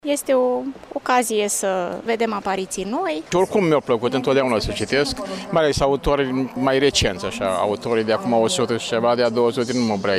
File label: vox pop; Librex; iasi s a deschis targul de carte librex